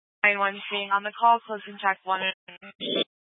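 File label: Incident Tactical 1, Scanner audio recording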